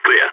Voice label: clear, balkan